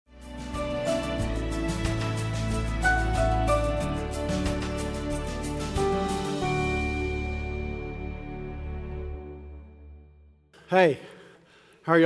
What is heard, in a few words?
Sermon English